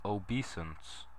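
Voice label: "obeisance", US English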